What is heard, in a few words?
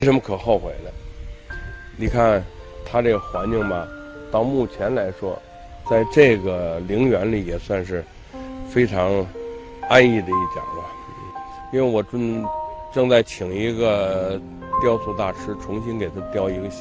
interview